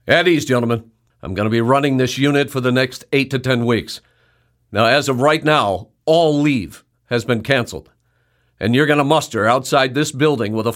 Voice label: Animation